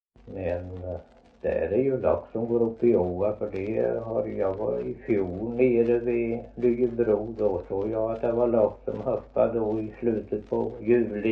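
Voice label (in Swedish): Värö Halland, Svenska sagor dialekter; Folkminnen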